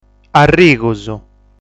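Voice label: "Arrigus", Sardinian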